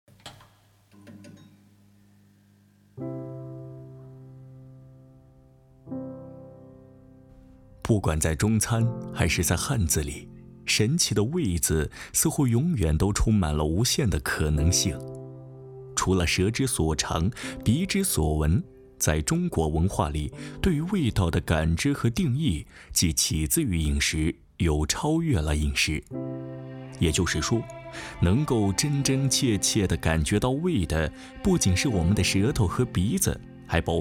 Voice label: 美食专题温暖磁性

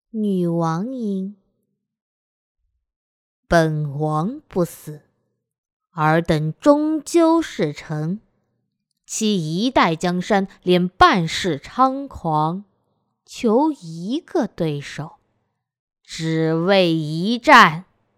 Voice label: 女王音

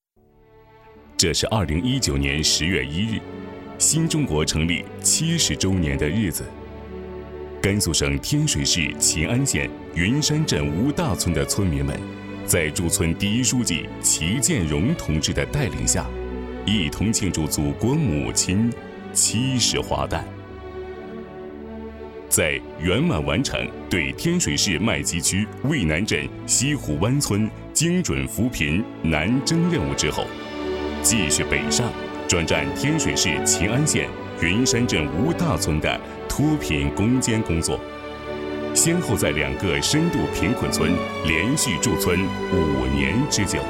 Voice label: 解说西湖湾村精准扶贫

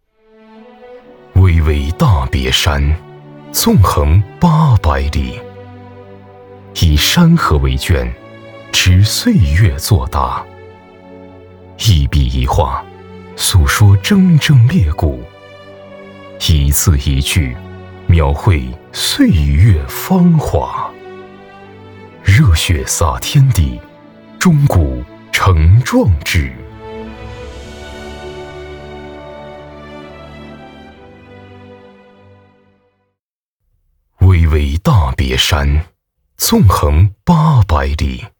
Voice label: 浑厚 大气 激昂

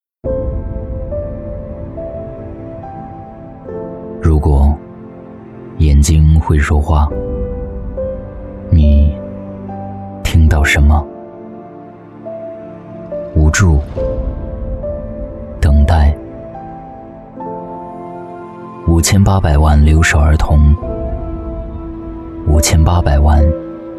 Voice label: 感性走心 旁白; 留守儿童旁白练习

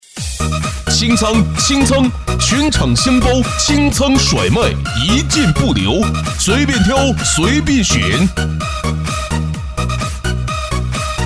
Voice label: 促销

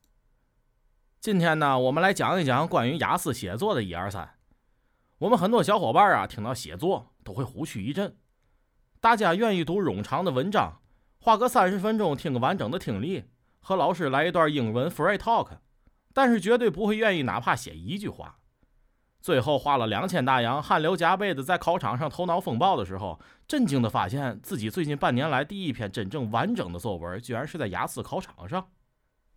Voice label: 方言天津话

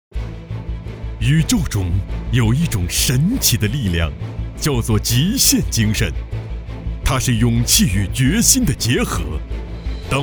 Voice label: 宣传 激情有力

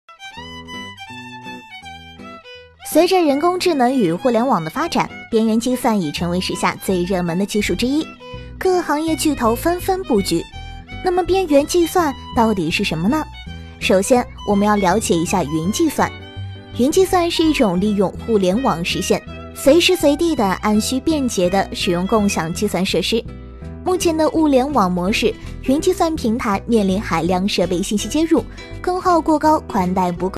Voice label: 飞碟说风格

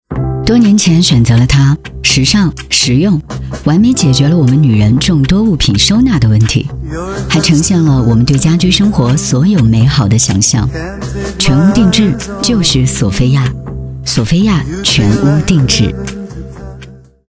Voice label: 女配

